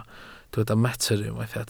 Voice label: "metr", Welsh

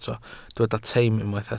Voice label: "teim" Welsh

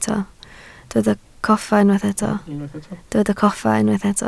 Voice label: "coffa", Welsh

